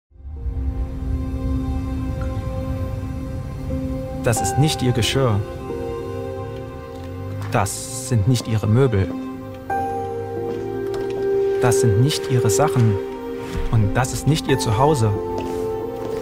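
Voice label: German Commercial; Lookout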